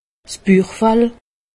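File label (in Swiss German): "buchfall", Haut Rhin; medecine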